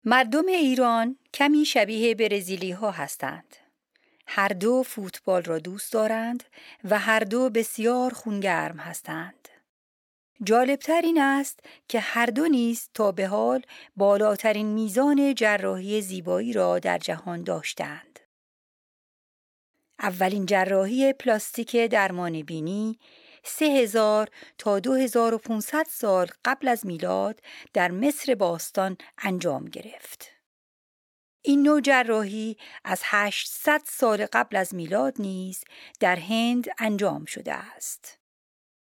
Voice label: text farsi